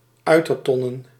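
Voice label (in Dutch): "uitertonnen", Dutch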